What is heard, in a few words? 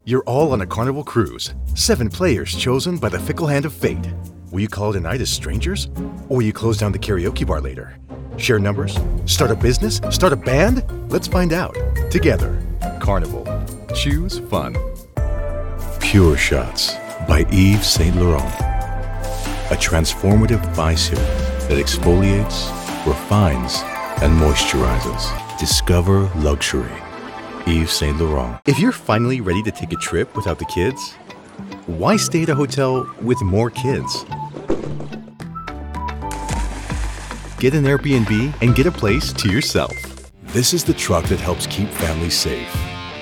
Commercial